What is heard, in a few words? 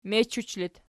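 "mechuch" locative, Archi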